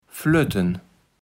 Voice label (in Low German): "flötten", kaevels platt